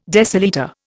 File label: "decilitre", Indian